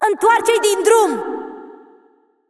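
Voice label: Romanian assist; Irelia; bloom minigame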